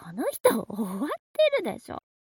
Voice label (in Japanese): mob 女子 台詞